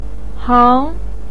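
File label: "hang2", Mandarin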